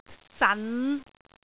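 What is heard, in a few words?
"san5", Cantonese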